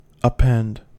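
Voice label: "append", US English; pronunciations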